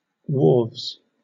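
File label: "wharves" English, British; pronunciations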